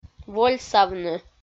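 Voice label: "вольсавны", Komi, female; audio dict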